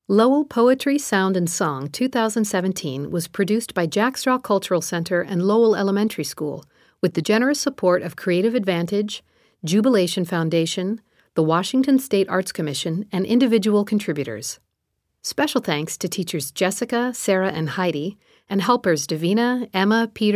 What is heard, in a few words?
Closing Narration